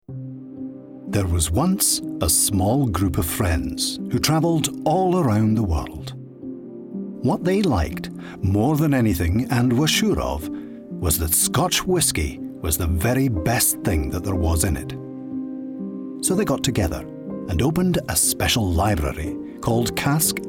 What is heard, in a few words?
Commercial Scots; Cask 88